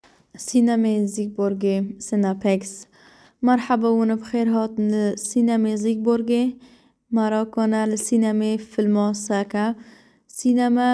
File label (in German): kurdisch; Cinerplex